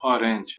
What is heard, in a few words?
"arendZ", Persian